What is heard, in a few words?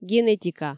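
"генетика", Komi, female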